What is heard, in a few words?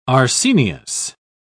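"arsenious", British English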